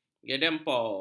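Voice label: "gedempol", Malay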